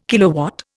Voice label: "kilowatt", Indian